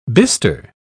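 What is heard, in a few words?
"bistre", British English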